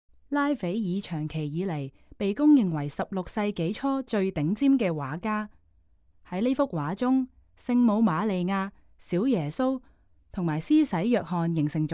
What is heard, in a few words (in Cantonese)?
Canto; audio guide; 聖母子與施洗者約翰（加瓦聖母）; 拉斐爾（1483–1520年）; 約1510–1511年; 木板油畫，38.9 x 32.9厘米; 英國國家美術館; 香港故宮文化博物館; 從波提切利到梵高：英國國家美術館珍藏展